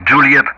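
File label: Speech Sound Russian Common Player Alphabet; Juliett; DCS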